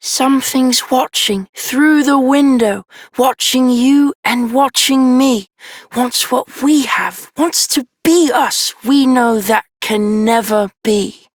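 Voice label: Childrens Rhymes, Child Male, English; Duviri; Envy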